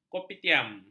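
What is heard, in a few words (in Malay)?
"kopitiam", Malay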